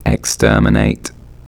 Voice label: voice 30hz, ringmod